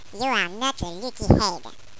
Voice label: children taunt; valve

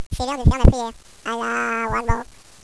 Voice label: children discuss; valve

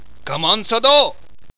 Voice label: babble; valve; gook

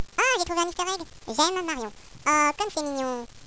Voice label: children discuss; valve

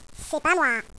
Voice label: children chatter; valve

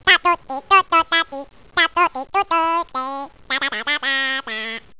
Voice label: children taunt; valve

